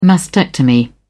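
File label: "mastectomy", British English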